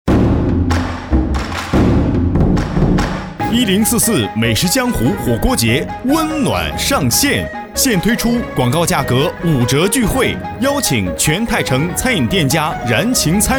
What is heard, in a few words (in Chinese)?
火锅节热闹版